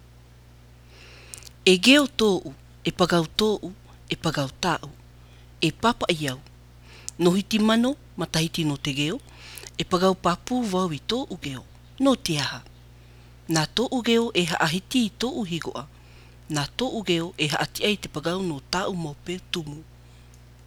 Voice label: Reo raivavae; australes